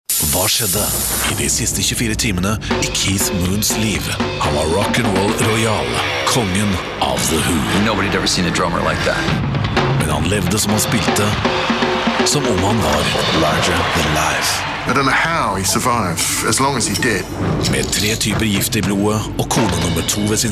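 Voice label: commercial, Rich and Warm Norwegian Male Voice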